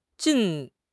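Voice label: "jin4", Mandarin Chinese; HSK2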